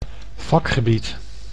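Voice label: "vakgebied", Dutch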